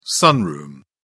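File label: "sunroom", English, uk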